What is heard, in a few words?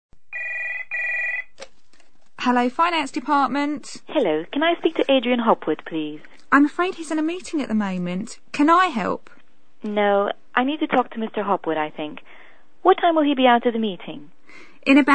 TELEPHONE ENGLISH Audio messages